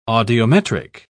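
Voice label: "audiometric", British English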